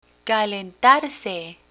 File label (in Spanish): "calentarse", Spanish; learn spanish